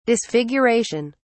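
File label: "disfiguration", British English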